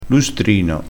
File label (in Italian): "lustrino", dialettale crespinese